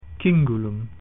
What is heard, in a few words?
"belt", Latin